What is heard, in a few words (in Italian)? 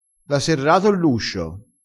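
"serra", vocabolario dialettale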